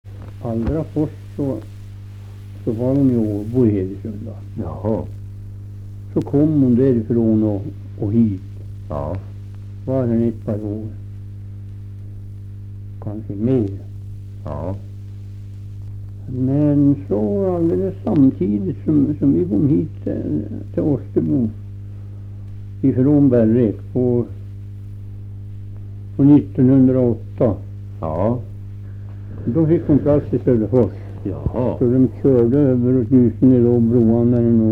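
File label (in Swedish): Österfärnebo Gästrikland, Svenska sagor, dialekter; Österfärnebo GST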